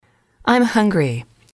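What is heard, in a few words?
"I'm hungry", English, female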